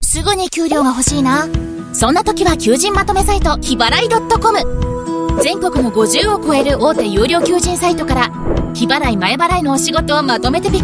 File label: Japanese Explainer